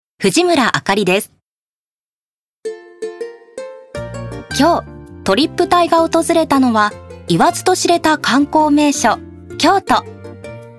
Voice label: ナチュラル

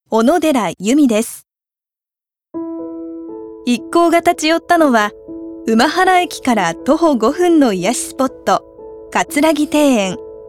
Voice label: ノーマル

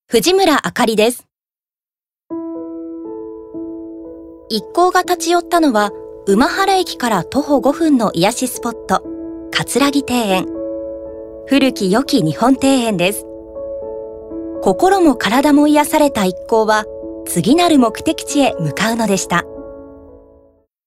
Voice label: ノーマル

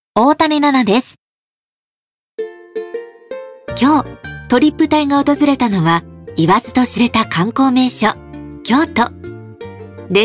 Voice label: ナチュラル

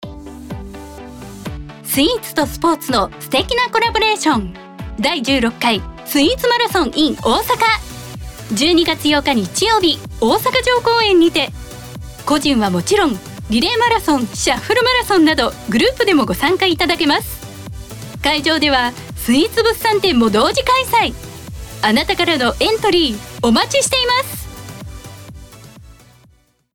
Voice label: CM Radio

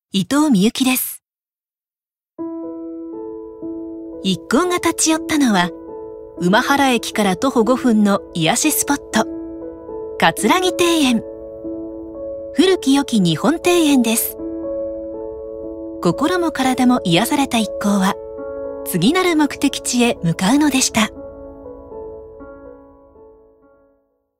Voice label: ノーマル

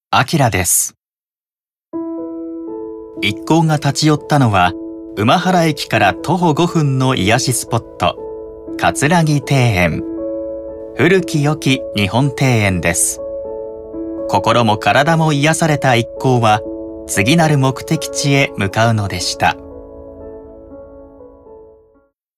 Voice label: ノーマル

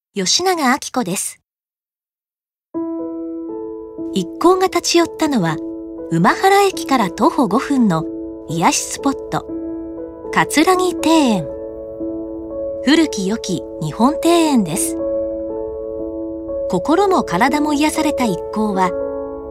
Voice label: ノーマル

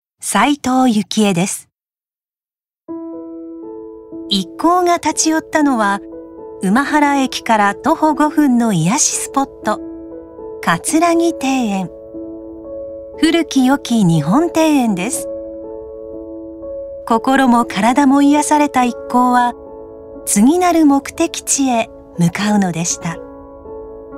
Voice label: ノーマル

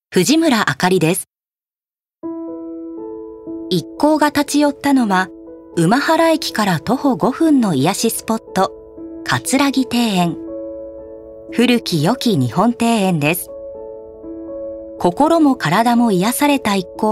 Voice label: ノーマル

